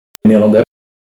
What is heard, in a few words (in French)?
neerlandais prononciation